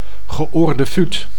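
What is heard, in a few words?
"geoorde fuut", Dutch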